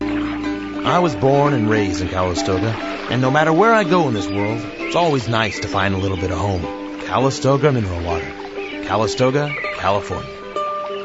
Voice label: Commercial